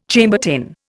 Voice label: "Chambertin", Indian

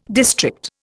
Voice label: "district", Indian

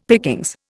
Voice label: "pickings", Indian; Dictionary

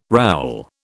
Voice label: "rowel", British

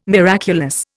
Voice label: "miraculous", Indian

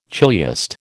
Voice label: "chiliast", American

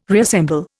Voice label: "reassemble", Indian

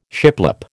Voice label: "shiplap", American

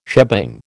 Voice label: "shebang", American

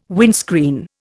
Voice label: "windscreen", Indian

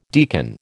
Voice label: "deacon", American

